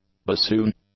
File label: "bassoon", English